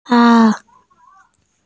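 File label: "ca1", Mandarin Chinese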